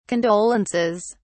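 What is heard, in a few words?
"condolences", British English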